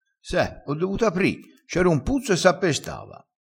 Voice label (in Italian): "spalanchio", vocabolario dialettale